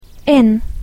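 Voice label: "inn", English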